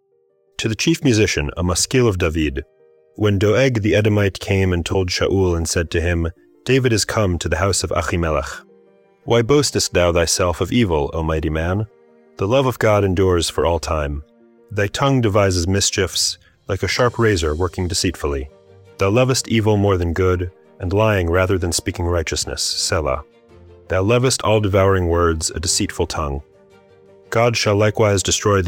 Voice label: poetry and prayer psalm english